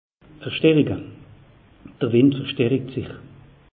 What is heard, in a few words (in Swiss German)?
"verstericke", alsace; meteo